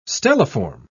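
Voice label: "stelliform", British English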